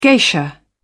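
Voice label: "geisha", British English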